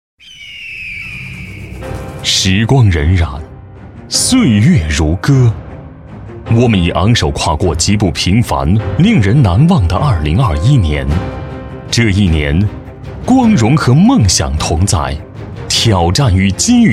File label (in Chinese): 男 颁奖 年度汇报